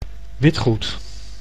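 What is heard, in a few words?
"witgoed", Dutch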